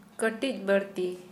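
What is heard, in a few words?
Santali female much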